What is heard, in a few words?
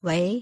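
"wei" Chinese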